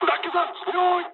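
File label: bailout mood high, speech japanese; War Thunder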